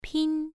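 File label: "pin", tone 1, pronunciation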